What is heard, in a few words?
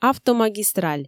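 "автомагистраль", Komi, female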